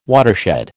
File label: "watershed", American